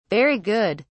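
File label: "very good", British English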